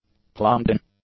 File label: "plumbed-in", english; adjectives